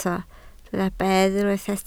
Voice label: "bedd", Welsh

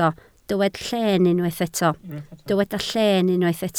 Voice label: "llen", Welsh

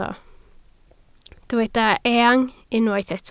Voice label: "eang", Welsh

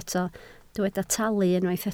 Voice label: "talu", Welsh

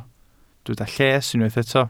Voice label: "lles", Welsh

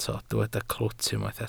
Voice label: "clwt", Welsh

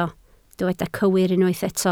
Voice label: "cywir", Welsh

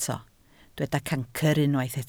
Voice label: "cancr", Welsh

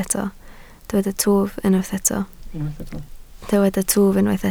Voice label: "twf", Welsh

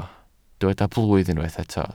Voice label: "blwydd", welsh

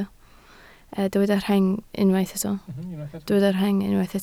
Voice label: "rheng", Welsh

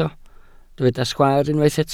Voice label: "sgwaar", Welsh

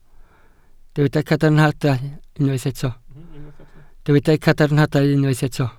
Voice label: "cadarnhadau", Welsh

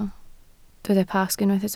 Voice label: "Pasg", Welsh

